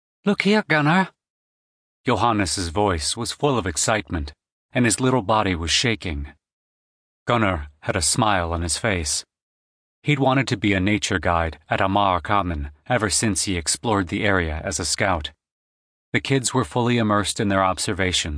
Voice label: audiobook narration